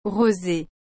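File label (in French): "roser", French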